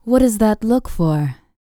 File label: FEM SPOKEN; ILIO; Vocal Planet VOL-4; R&B & Dance